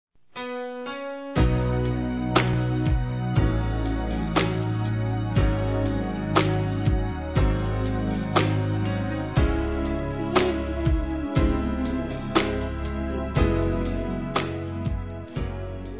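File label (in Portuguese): Pedindo para continuar female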